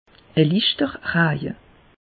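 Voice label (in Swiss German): "raje", Alsatian; meteo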